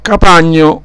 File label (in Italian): "capagno", dialetto; capàgno canestro intrecciato